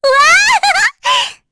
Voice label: Happy, kr, voices; heroes; Rehartna; kings raid